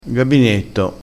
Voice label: "gabinetto", crespinese

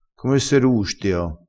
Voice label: "rustio", crespinese

